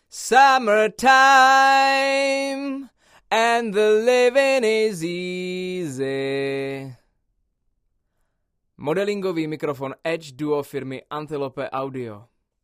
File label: Vocal C12 Omni Edge modelled; edge duo srovnavaci test